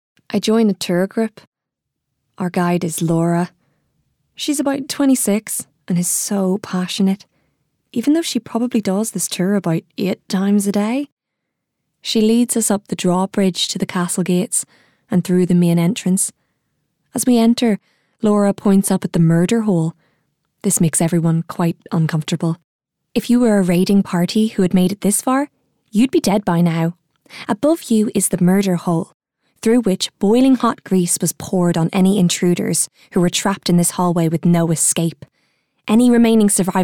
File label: Narration